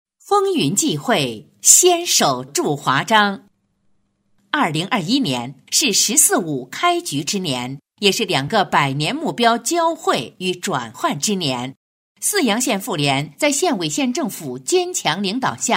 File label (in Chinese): 女, 党建专题, 妇女儿童工作, 正式大气; 泗阳县; 正气稳重; 沉稳大气